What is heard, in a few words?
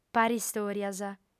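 "paristòrias", Sardinian, female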